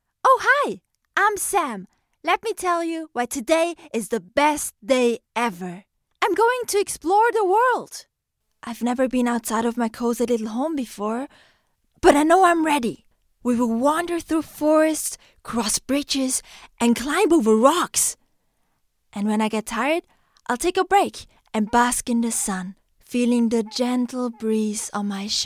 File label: Animation Voicereel; LOFT VOICES